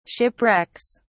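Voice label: "shipwrecks", British English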